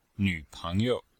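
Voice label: "nǚpéngyou", Chinese; Cours de Chinois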